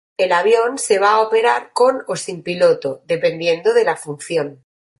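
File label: "piloto", Spanish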